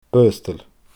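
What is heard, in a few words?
"Baghasdail", Scottish Gaelic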